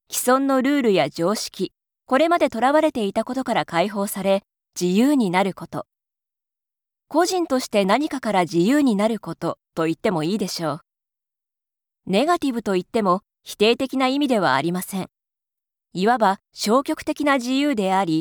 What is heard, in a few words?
Narration